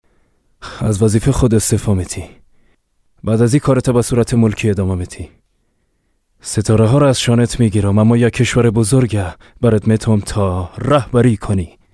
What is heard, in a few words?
Dari Male